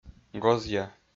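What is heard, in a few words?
"гозъя", Komi, male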